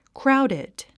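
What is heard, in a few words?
"crowded", English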